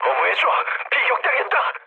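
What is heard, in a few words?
Korean aircraft damaged mood low; War Thunder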